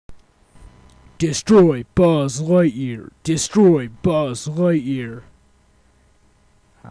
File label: robot voice